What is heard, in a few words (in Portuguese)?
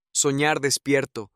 "sonar despierto", espanhol